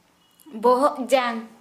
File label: Santali skull